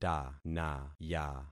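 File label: "tanaya", concatenated syllables; Affixing experiment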